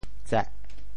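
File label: "tseh4", Teochew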